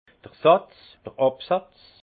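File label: "satz", Alsatian, Haut Rhin, dépot; vin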